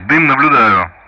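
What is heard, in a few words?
Speech Sound Russian; DCS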